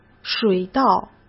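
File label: "水稻", Mandarin Chinese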